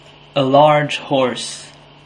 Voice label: "a large horse", English